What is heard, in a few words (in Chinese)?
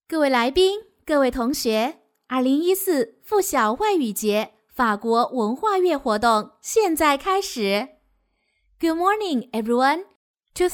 英女-小学开场中英